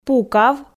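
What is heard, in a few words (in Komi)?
"пукав", Komi, female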